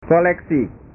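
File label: "koleksi", Indonesian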